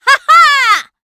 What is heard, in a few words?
Female Fight Appear